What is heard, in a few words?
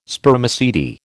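"Spermaceti", American English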